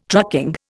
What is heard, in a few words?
"trucking", Indian